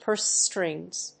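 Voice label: "purse strings", English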